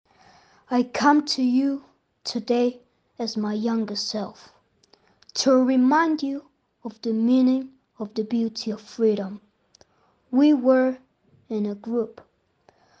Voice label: child boy ukrainian voiceover english recording

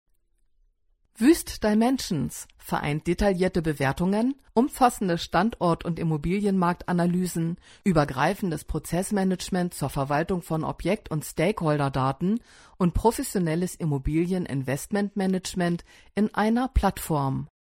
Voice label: locutora alemana German voiceover; dubbing

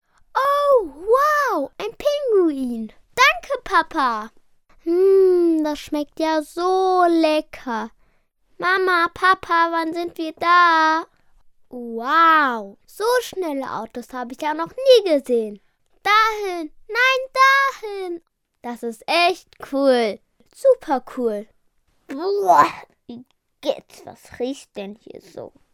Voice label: German child voiceover, locutor niño alemán; Voces de niños alemanes; voz de niño alemán

